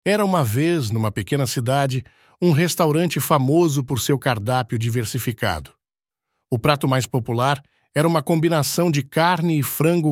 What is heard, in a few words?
Restaurante da pequena cidade, Portuguese; Learn food in Portuguese; Era uma vez, numa pequena cidade, um restaurante famoso por seu cardápio diversificado.